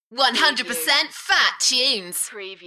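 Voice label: UK female, Enthusiastic, voice drop; 100 Percent fat tunes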